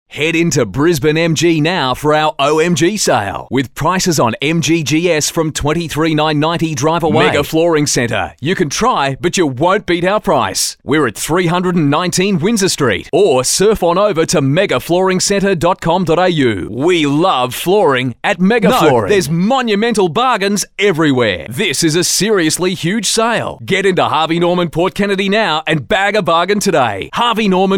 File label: Hard Sell